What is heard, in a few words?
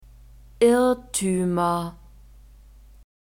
"irrtuemer", German